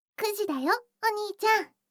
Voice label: 時報9時

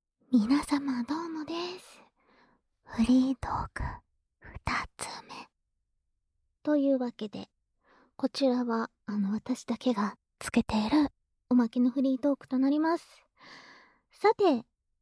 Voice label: フリートーク; ちょっとエッチな？フリートーク

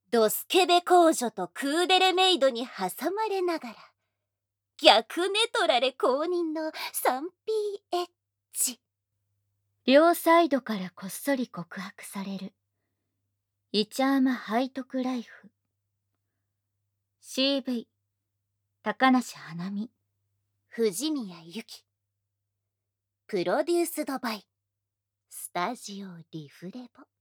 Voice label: タイトルコール, ASMR

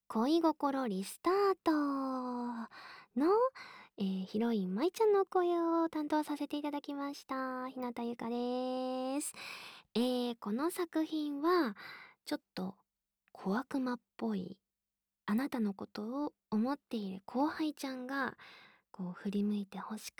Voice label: PRボイス; 恋心リスタート〜今夜は昔の後輩にエッチの主導権を渡してみませんか?〜

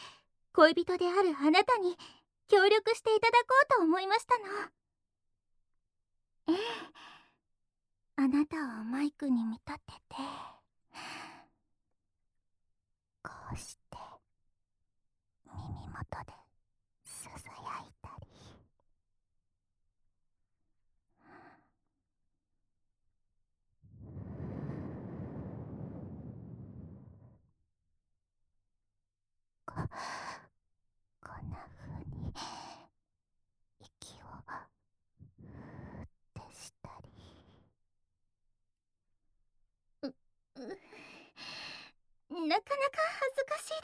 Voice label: 耳元 甘おほ ASMR; 清楚なお嬢様Vtuber; 射精誘発; 吐息マシマシ

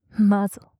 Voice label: マゾ・強く・極近・左

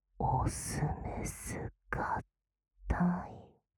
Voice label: 【左】オスメス合体, ASMR; 淫語

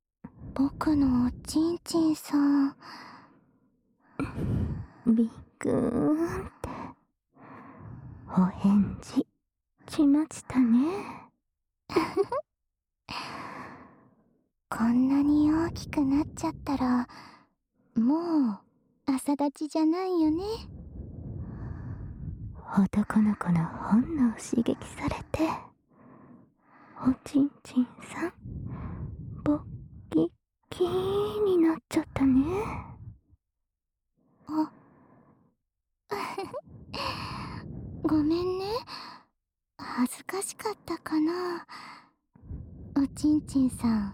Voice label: 愛情たっぷり両耳舐め手コキ

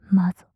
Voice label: "マゾ" 極近 右, ASMR; マゾオスのあなたに敗北射精の快楽を叩き込む音声

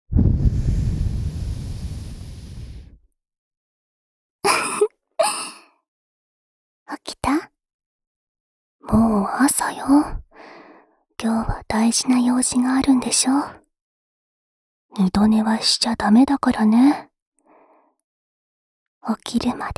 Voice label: スマホ用目覚ましボイス; SE有り

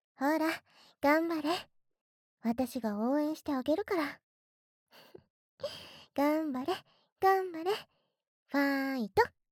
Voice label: 頑張れボイス; システムボイス; エモ射精; 夏、フられた二人の慰め合い×自棄セックス。; KU100; アドリブ生収録

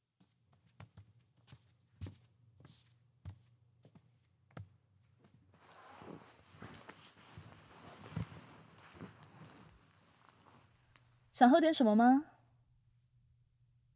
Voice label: ASMR女上司; KU100; 拜託酷酷的女上司做色色的事

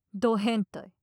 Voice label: "変態", 強く, 近, 左; マゾオスのあなたに敗北射精の快楽を叩き込む音声

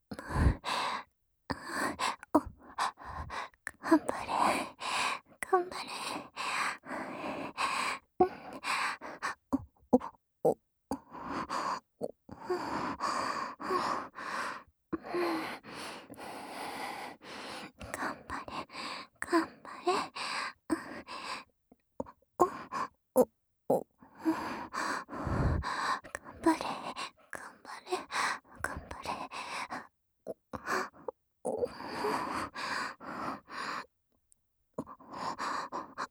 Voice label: 頑張れ頑張れあまオホ声ループトラック, 効果音なし, ASMR; あなたの匂いが好きすぎる後輩チアJKとの媚び媚びチン嗅ぎご奉仕部活おわりえっち

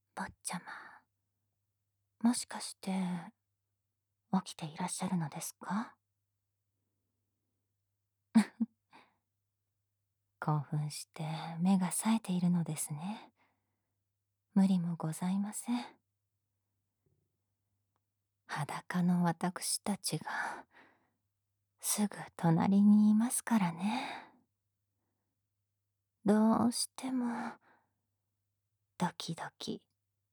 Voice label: 女執事姉妹との添い寝; SEなし

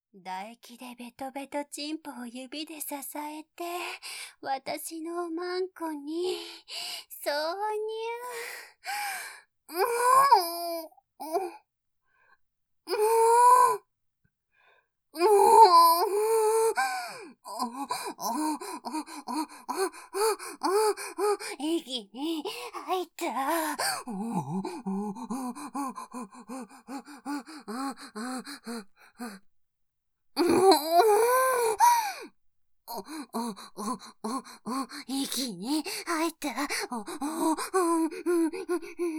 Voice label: おほ声騎乗位 台詞のみ

